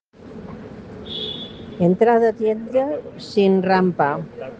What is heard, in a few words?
Voice message